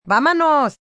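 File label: Lets Go Spanish, female, Spanish; Talking; VoiceBox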